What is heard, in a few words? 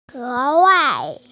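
"especially", female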